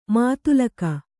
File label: "ಮೂದಲಿಕೆ", Kannada